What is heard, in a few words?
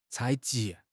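"采集", Chinese; HSK6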